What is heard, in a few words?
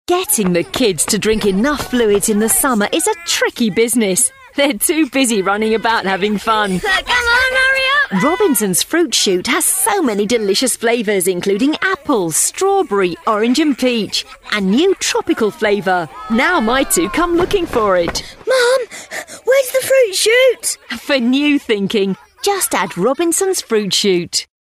Commercial; Robinsons; Vocal Point